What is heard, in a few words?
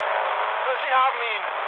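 German aircraft destroyed mood med, speech; War Thunder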